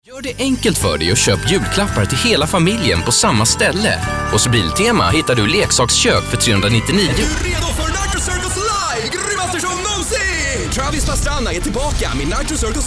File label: male swedish voice over talent sales